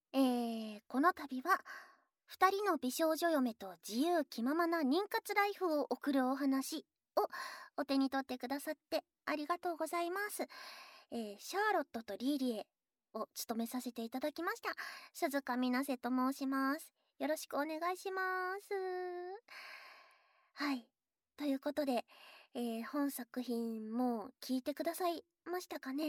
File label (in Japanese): フリートーク; ASMR